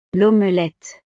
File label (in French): "l omelette", frances; desayuno